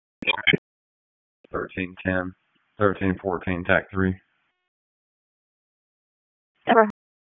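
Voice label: Police Southeast District Dispatch; Raleigh; wake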